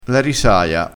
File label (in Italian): "risaia", crespinese, vocabolario dialettale